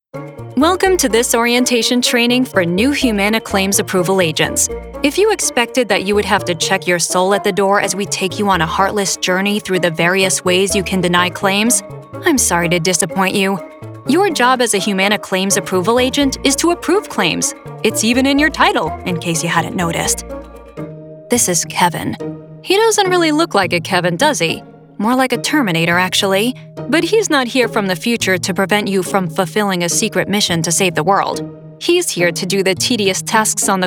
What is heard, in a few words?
E LEARNING